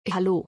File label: "Hallo", German